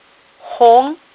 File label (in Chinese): "hong2", phonics